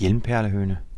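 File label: helmeted guineafowl, Danish; animals; birds; tuxpaint-stamps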